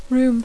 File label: "room", English